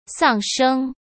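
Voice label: "丧生", Mandarin Chinese; chinese-flashcards; hsk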